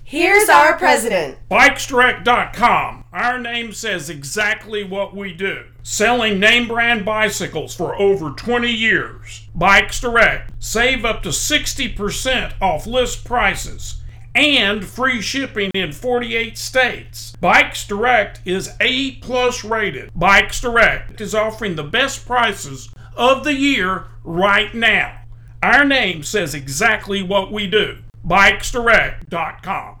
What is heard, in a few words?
commercial; BIKESDIRECT